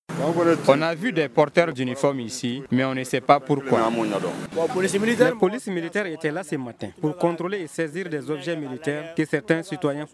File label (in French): MICROTROTTOIR; POLICE MILITAIRES; FR